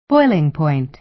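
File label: "boiling point", American English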